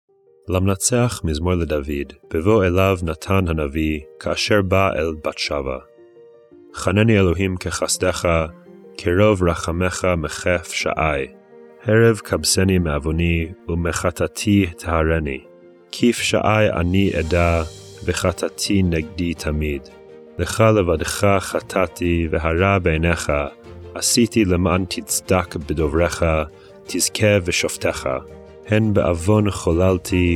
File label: poetry and prayer psalm hebrew